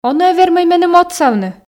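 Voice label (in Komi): он-ӧ вермӧй меным отсавны, Komi, female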